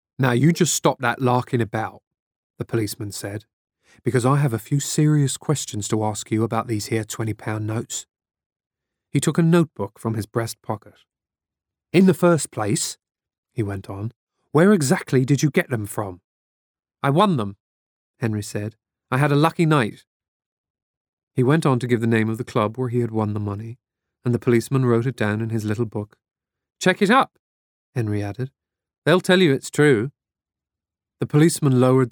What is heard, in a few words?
Character